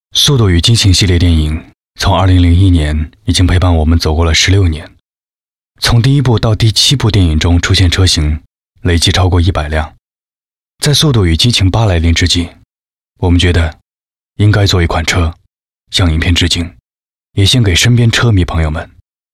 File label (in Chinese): 男 微电影旁白; 汽车